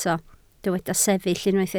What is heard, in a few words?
"sefyll", Welsh